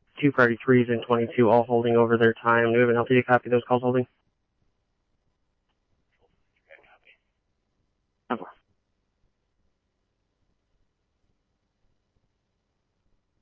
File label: Phoenix PD, scanner; Thompson Peak High; AZ